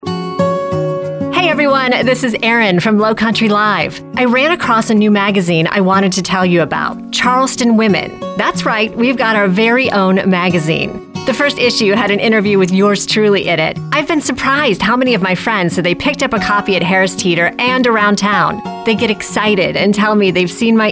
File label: 60 sec radio; charleston women mag